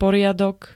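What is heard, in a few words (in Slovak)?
"poriadok", Slovak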